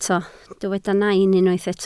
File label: "nain", Welsh